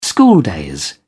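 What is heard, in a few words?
"schooldays", British English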